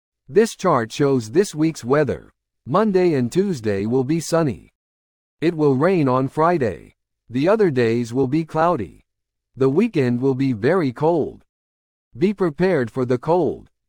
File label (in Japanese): トーク ノーマル